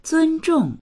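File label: "zun1zhong4", Mandarin Chinese; zūnzhòng; 尊重; 尊重する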